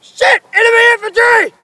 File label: spot; bf3